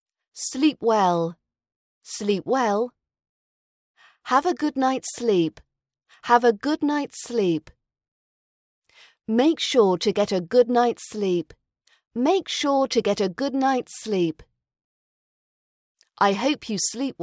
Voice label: going to bed phrases RP British accent pronunciation